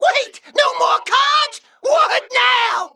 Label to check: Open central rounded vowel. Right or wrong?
Wrong